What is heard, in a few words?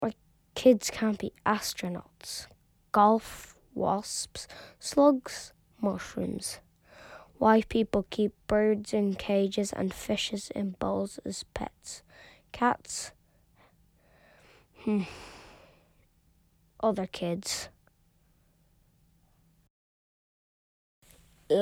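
voiceover; birdboy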